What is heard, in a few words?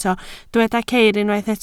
"ceir", Welsh